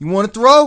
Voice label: cuss, profanity, male; kingpin